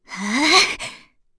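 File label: Vox Casting, English; FreyB; kingsraid; voices heroes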